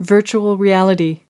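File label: "virtual reality", English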